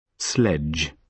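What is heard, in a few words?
"sledge", British English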